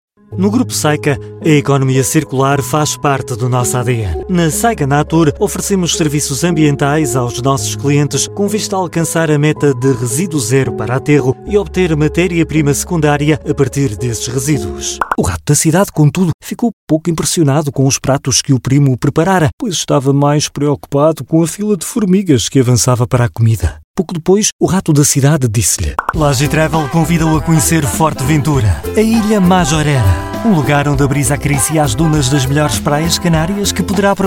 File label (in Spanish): portugues